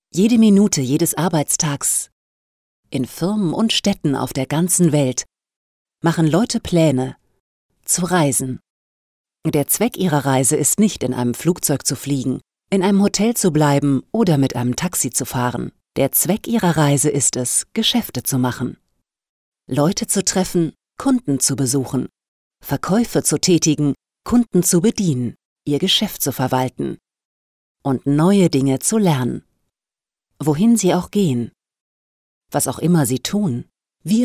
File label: german female corporate soft, Smooth Warm German Voice; Smooth Warm German Voice Over Artist